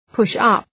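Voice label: "push up", English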